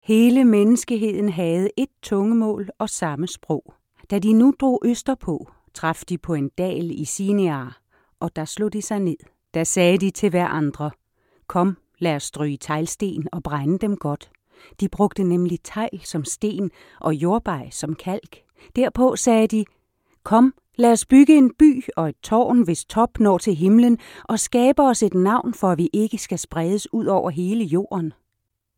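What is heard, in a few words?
Danish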